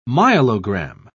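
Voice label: "myelogram", British English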